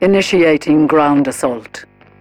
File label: advisor notification invasion progress, militarist; Stellaris; ethics